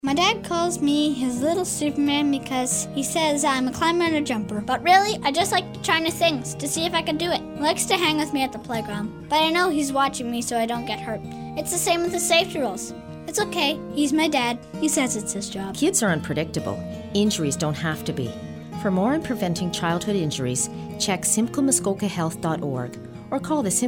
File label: radio ad